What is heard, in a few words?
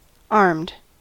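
"armed", US English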